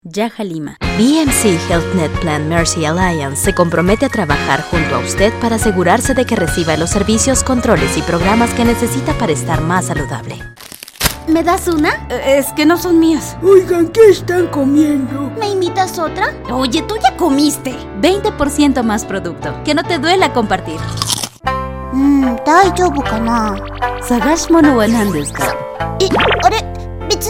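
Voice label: female, neutral Spanish Latin American commercial compilation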